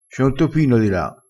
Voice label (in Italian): "caati", crespinese